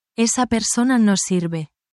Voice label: spanish, spanish phrases negative language